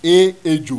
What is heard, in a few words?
"EJO", Yoruba; ALPHABET RHYME